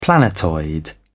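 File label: "planetoid", British English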